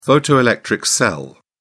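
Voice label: "photoelectric cell", British English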